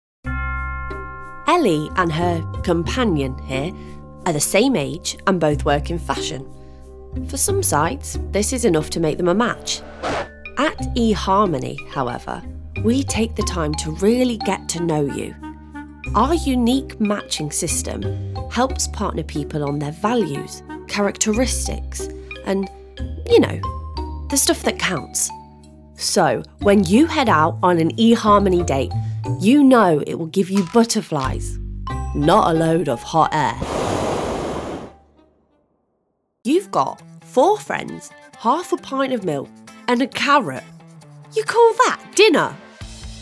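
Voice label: Commercial Voice, engaging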